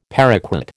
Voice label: "paraquat", American